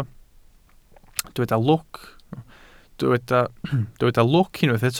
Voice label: "lwc", Welsh

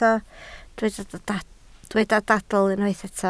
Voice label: "dadl", Welsh

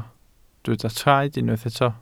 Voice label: "troed", Welsh

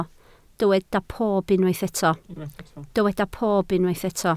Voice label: "pob", Welsh

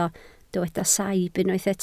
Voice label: "saib", Welsh

